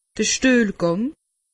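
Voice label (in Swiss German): "stuehlgang", Alsatian; medecine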